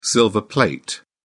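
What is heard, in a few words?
"silver plate", British English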